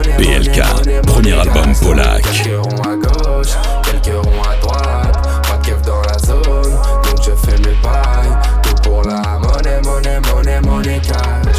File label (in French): Spot; Skyrock; POLAK